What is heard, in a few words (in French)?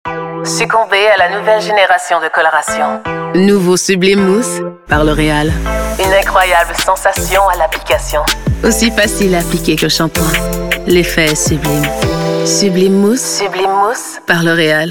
Fictive Promotionnelle Douce Sobre, Charmante - Complice - Français soutenu; L'Oréal